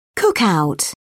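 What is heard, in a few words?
"cookout", British English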